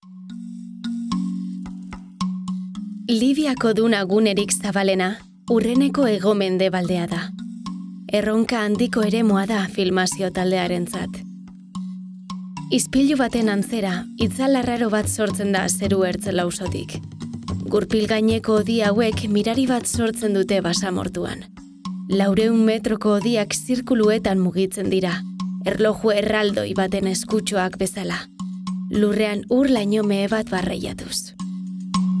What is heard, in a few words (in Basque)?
NARRATIBO EUSK DOCU